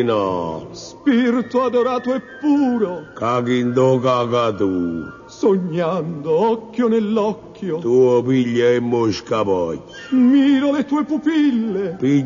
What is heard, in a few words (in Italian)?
Poesia a due voci; Versi osceni